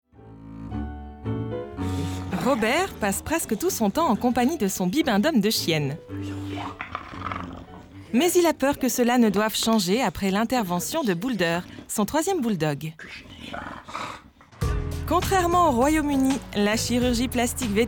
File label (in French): Narration Documentaire; Discovery Channel